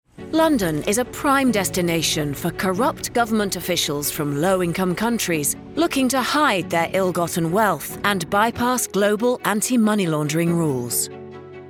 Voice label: Dramatic, authoritative, serious. Video narration, English NEUTRAL; Video narration "The Kleptocracy Problem"